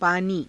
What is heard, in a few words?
"paanii", Hindi